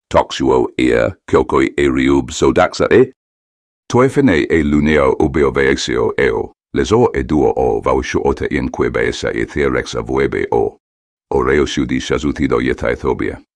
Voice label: Cortical Speech; cortical echoes